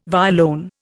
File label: "violone", Indian